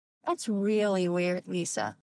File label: weird